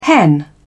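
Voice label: "hen", British English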